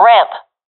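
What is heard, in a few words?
location ramp, professional female; vo; Counter Strike Model Pack; CS:GO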